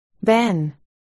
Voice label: "ben", articulation

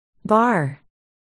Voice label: "bar", articulation

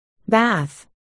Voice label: "bath", articulation

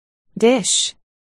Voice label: "dish", articulation

